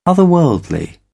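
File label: "otherworldly", British English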